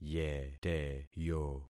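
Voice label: "yeteyo", concatenated syllables; Affixing experiment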